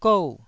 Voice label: "gou4", Taiwanese syllable

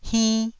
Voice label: "hinn1", taiwanese

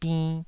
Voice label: "binn2", taiwanese

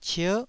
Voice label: "cier9", Taiwanese

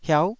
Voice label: "hiauh9", taiwanese

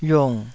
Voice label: "yiung3", taiwanese Syllables

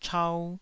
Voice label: "chau2", Taiwanese

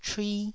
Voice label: "chui1", taiwanese

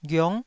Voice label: "ghiong9", taiwanese syllable

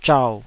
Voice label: "zhau3", taiwanese

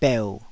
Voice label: "beu3", taiwanese

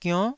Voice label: "gionn9", taiwanese

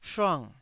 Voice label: "shuang4", taiwanese Syllables

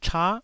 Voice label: "cha9", taiwanese

